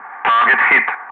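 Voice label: "target hit", English, Wingman; Ka-50; DCS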